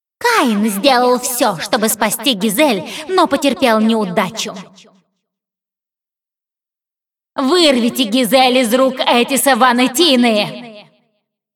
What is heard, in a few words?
cinematic voice, ru; lineage2